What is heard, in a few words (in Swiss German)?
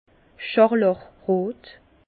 "scharlachrot", Bas Rhin; vin; pourpre